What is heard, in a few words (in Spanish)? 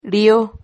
"rio", silabas